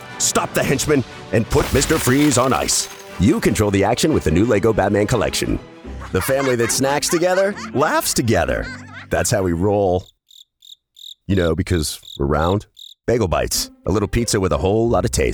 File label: English Voice Over Short Ads